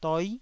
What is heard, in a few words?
"doi9", Taiwanese syllable